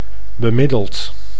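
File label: "bemiddeld", Dutch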